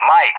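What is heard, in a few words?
"Mike", English; JTAC; Alphabet; DCS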